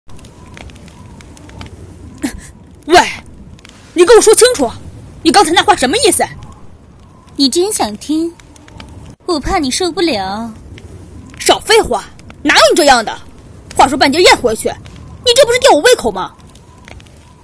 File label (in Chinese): 动漫少年少御